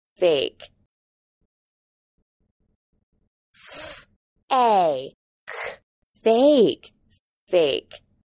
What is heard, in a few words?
"fake", English